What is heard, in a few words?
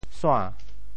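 "sua~2", Teochew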